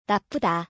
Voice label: "bad", Korean